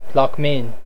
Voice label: Cajun French, Plaquemine